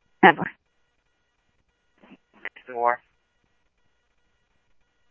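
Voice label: Simulcast Chandler Patrol B, scanner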